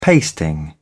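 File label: "pasting", British English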